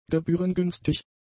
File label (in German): "gebührengünstig", German; adjectives